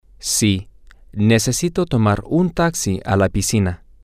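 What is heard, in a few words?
yes I need to take a taxi to the pool, Spanish, male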